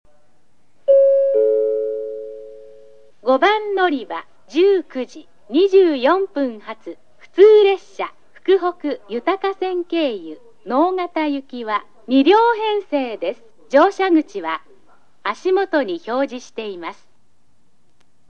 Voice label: 案内放送・女性（普通<福北ゆたか線経由>・直方） 福北ゆたか線の全列車は「福北ゆたか線経由」とフレーズが入ります; 小倉駅; 5 鹿児島本線／折尾・赤間・博多 方面 福北ゆたか線／中間・直方・飯塚 方面

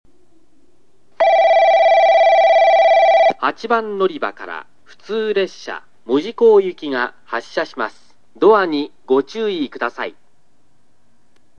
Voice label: 発車放送・男性（普通・門司港）; 小倉駅

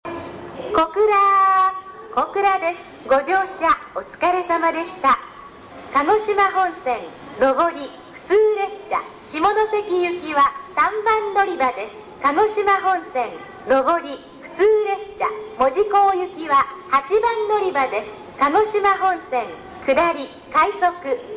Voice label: 到着放送; 小倉駅; ７番のりば; 旧放送(2000年10月収録) ---テレコ収録につき音量注意

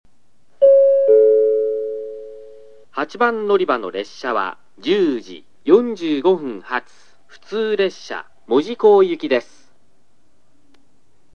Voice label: 停車中放送・男性（普通・門司港）; 小倉駅; 8 鹿児島本線／門司・下関 方面

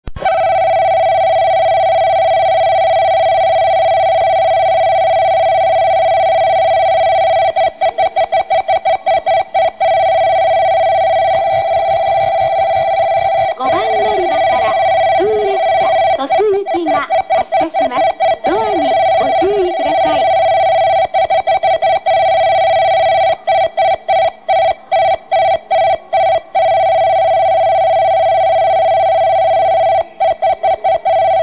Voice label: 発車放送 普通・鳥栖; 小倉駅; ５番のりば; テレコ収録につき音量注意; １番のりば

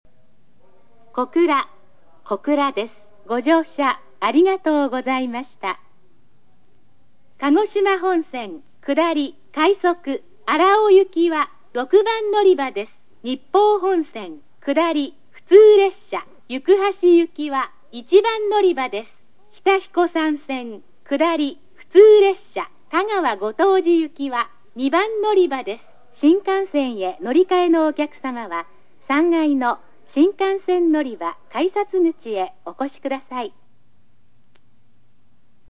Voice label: 到着+乗換放送・女性 門司方面からの鹿児島本線下り普通到着時の放送です; 小倉駅

